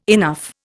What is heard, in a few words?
"enough", Indian